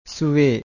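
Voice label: "suve", Pali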